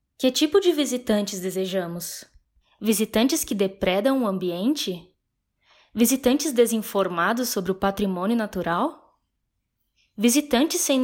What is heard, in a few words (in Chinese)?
葡萄牙语, 老师样音; 外籍-葡萄牙语 PORTUGUESE 1897号老师样音